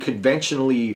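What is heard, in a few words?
"conventionally", American English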